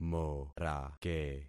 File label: "morake", concat syllables; Affixing experiment